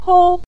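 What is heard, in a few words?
"hou", Mandarin Chinese, neutral tone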